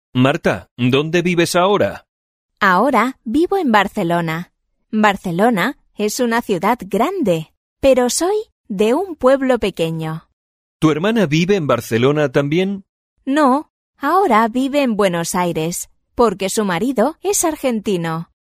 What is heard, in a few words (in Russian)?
urok dialog